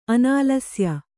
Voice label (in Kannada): "ಅನಾಲಸ್ಯ", Kannada